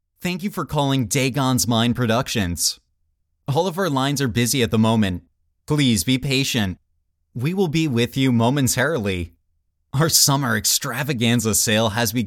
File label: Phone System Script